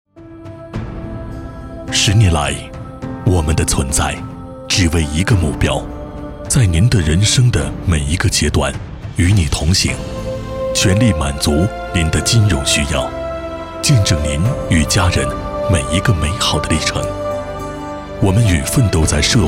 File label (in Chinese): 男60号 专题 金融类; 济宁银行; 交广主播